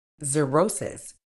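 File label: "xerosis", British English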